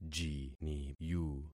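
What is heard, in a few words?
"jiniyu", concatenated syllables; Affixing experiment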